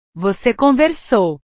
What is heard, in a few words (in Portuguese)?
"voce conversou", portugues; conversar; portugues/verbos